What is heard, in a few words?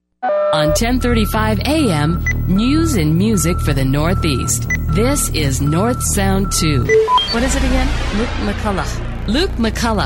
Sweepers; Northsound Two